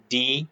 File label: short voyels arabic alphabet